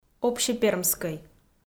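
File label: "общепермскӧй", Komi, female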